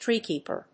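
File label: "Treecreeper", English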